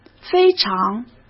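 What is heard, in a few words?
"非常", Mandarin Chinese; chinese flashcards; hsk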